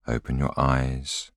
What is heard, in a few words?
voiceover